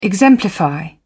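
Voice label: "exemplify", British English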